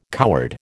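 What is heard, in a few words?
"coward", American English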